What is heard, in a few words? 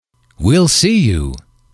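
"well See You", male, english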